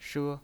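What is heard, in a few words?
"she", Mandarin Chinese pinyin, first tone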